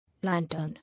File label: "Lantern", English